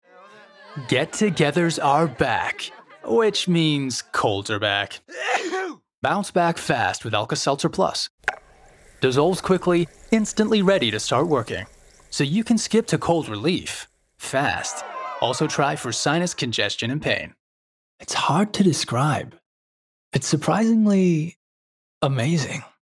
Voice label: US ACCENT ADS